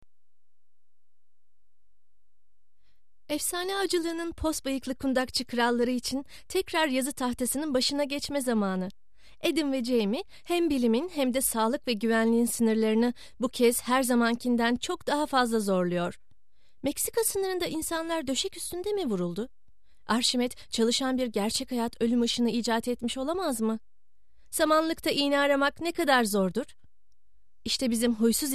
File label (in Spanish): locutora turca; Turkish female voice talent